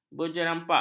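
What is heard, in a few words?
"berjerempak", Malay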